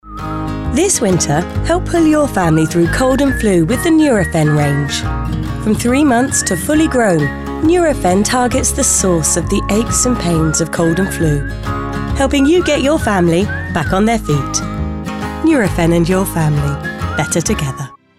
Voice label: Commercial